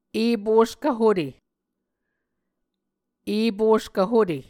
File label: "eposkv hute", Muscogee